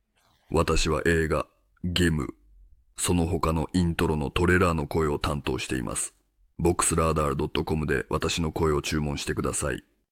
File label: japanese voiceover, games movies; japanese voiceover artist